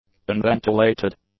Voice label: "unventilated", english; adjectives